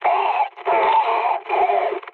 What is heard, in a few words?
damaged pilot, mood high, speech; War Thunder